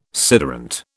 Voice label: "sederunt", British

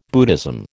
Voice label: "Buddhism", American

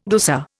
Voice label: "rusa", Indian

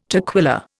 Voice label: "toquilla", Indian

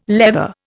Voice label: "leather", Indian

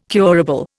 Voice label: "curable", Indian

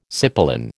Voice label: "cipolin", American

